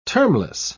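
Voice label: "termless", English, British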